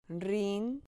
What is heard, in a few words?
"rin", Spanish